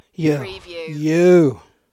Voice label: Male Yo Yo